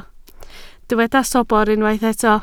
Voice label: "sobr", Welsh